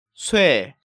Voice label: "Soe", Korean

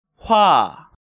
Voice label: "hwa", Korean

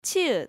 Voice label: "chieut", Korean

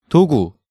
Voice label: "Dogu", Korean

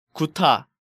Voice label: "Guta", Korean

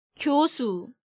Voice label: "Gyosu", Korean

